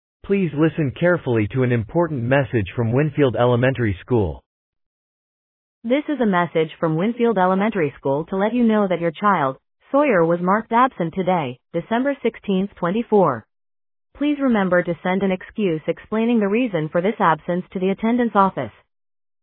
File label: Voicemail